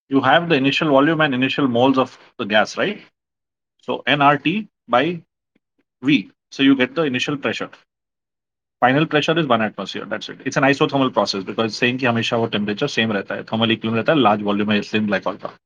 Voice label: voice message